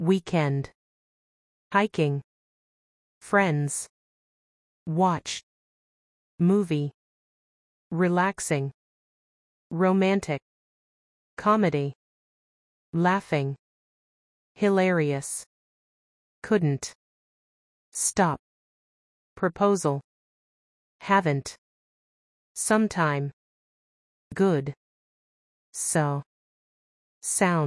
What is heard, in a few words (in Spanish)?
notas de texto simple continuo ingles; pasado simple vs pasado continuo